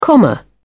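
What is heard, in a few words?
"comma", English, British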